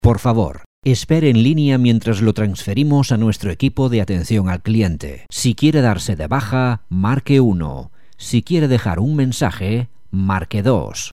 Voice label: on hold IVR